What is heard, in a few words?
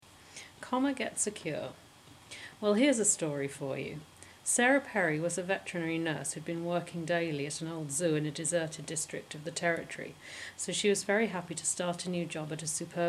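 Surrey common text; england; dialect samples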